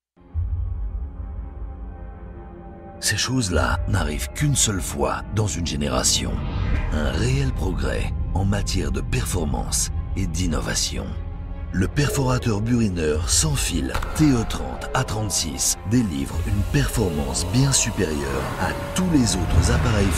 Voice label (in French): promo internet; Hilti